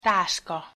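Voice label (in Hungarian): "taska" Hungarian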